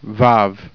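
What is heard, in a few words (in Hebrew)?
"vav", Hebrew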